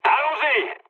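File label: French enemy detected mood med; War Thunder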